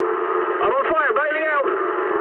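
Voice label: English on fire mood med; speech; War Thunder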